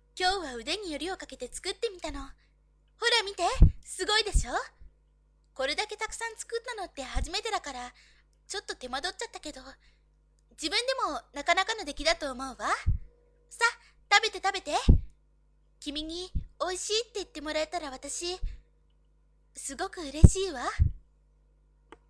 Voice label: girl